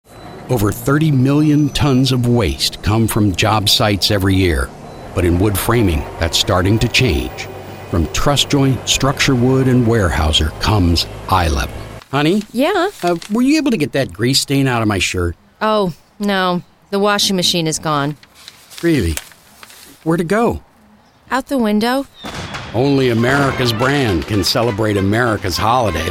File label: Commercial